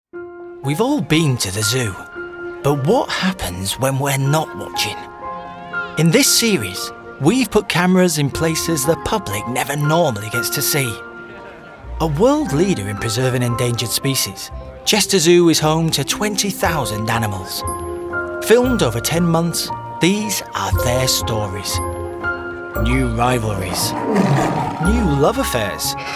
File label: male english northern accent documentary compilation; english northern accents